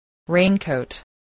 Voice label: "raincoat", english

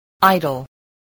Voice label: "idle", voice spelling

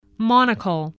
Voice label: "monocle", voice, spelling

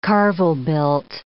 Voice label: "carvel-built", English

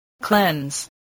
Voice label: "cleanse", voice

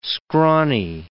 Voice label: "scrawny", English

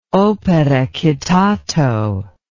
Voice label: "opere citato", English

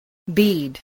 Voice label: "bead", English

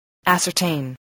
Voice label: "ascertain", English

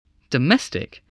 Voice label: "domestic", spelling

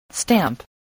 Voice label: "stamp", voice

